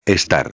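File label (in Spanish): "estar", Spanish; modos tempos verbais; subjuntivo; preterito imperfecto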